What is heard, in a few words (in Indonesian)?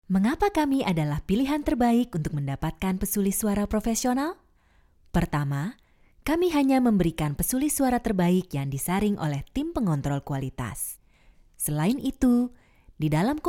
Sulih Suara